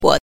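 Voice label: "guo", Mandarin Chinese pinyin, neutral tone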